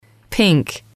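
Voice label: "pink", English, female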